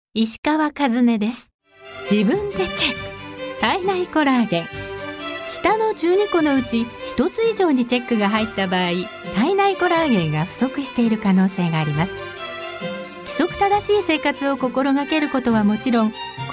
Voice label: female web video

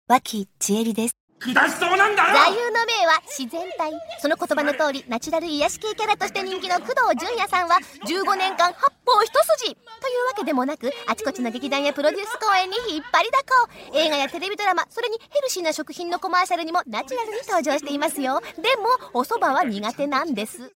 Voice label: female tabloid show

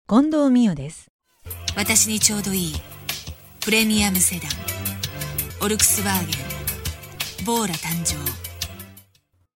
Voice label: female tv commercial

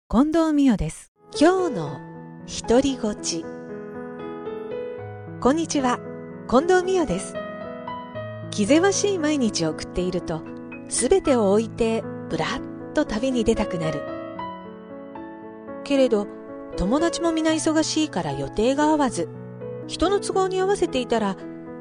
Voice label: female tv narration

